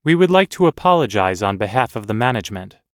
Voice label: everyday apologizing us